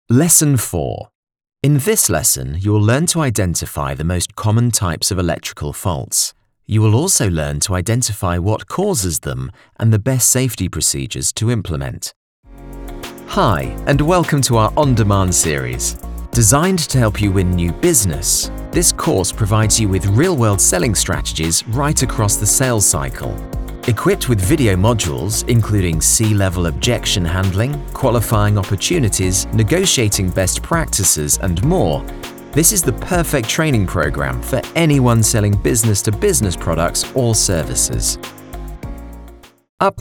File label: eLearning